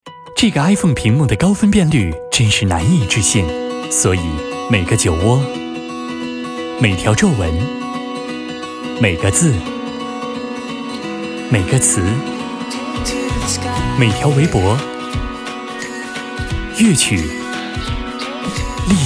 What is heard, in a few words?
TVC; English (North American)